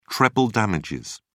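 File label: "treble damages", British English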